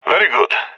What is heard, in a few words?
cheer; agents; leet epic